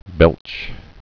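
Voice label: "belch", spoken word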